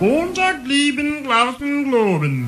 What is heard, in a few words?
hoten gleeben louten loben, Voice gibbrish